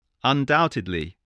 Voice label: "undoubtedly", English spelling voice